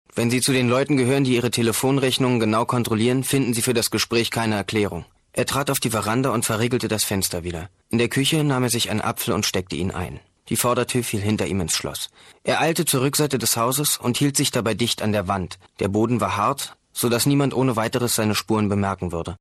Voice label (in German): erzaehlung